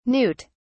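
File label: "newt(s)", British English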